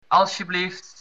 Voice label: you're welcome, dutch